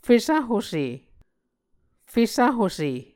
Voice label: "fesahuse", muscogee

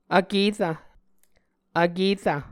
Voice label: "vkerrv", Muscogee

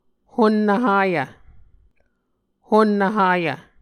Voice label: "honnv hayv", Muscogee

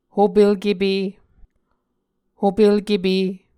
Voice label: "hopelkepe", Muscogee

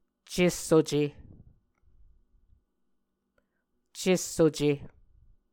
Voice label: "cessuce", muscogee; Mvskoke Words with Voicing Consonants; A Dictionary of Creek/Muskogee; SIL International

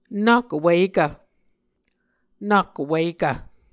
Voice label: "nak wikv", Muscogee

